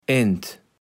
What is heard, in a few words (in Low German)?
"Ent", kaevels platt